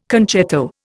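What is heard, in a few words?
"concerto", Indian English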